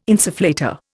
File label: "insufflator", Indian